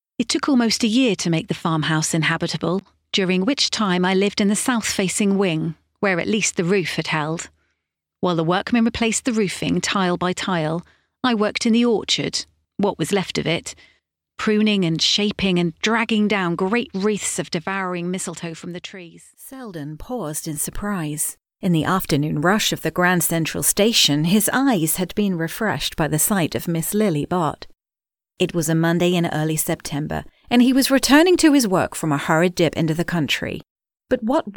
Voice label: Audiobook accent reel RP American Irish Northern Childrens narration